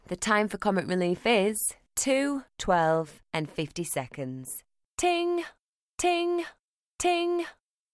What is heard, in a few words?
speaking clock